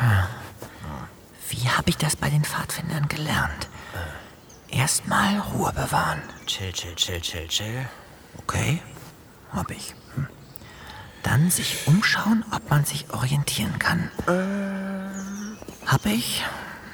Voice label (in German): Hörspiel Kind allein